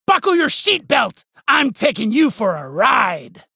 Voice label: Halloween Slap Ghost Spacerig